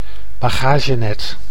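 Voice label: "bagagenet", Dutch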